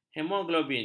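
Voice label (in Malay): "hemoglobin", Malay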